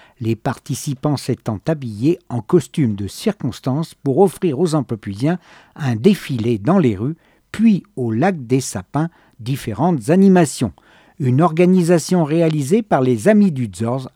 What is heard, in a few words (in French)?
patois francoprovencal rassemblement a Amplepuis